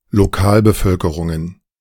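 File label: "Lokalbevölkerungen", German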